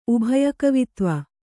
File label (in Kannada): "ಉಭಯಕವಿತ್ವ", kannada